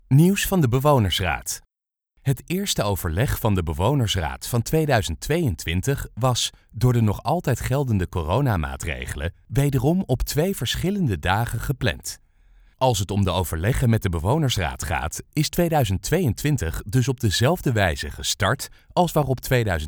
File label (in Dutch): Voiceover